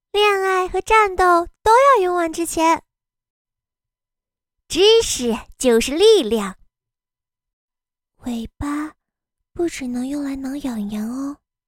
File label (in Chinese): 游戏英雄声